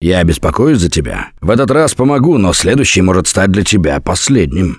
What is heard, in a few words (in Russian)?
Revive Critical Friend, left4dead2 russian, Survivor Voice; Gambler